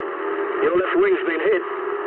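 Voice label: English left wing mood low; speech; War Thunder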